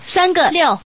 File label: Woman tuple, woman; guizhou